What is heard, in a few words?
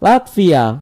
"latvia", id; gcompris-data; geography